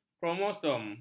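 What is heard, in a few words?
"kromosom", Malay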